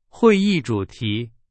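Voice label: Chinese, example sentence; hsk4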